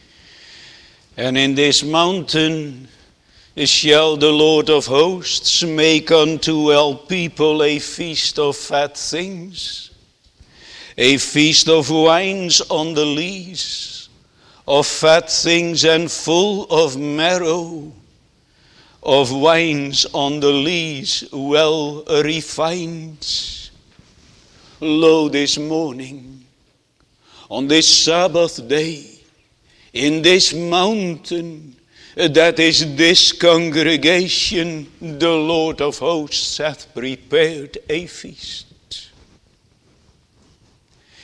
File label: Sermon; Waiting and Rejoicing; isaiah 259